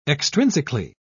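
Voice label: "extrinsically", British English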